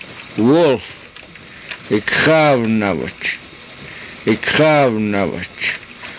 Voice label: "ikxaavnamich", Karuk